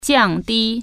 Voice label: "lower", Chinese